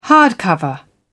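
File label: "hardcover", British English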